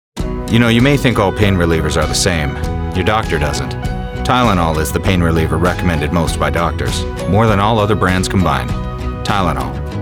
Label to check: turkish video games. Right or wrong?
Wrong